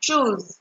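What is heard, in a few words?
"choose", Nigerian Pidgin, female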